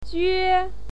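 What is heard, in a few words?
"jue1", Mandarin Chinese syllable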